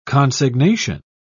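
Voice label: "consignation", English, British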